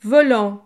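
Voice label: "volant", French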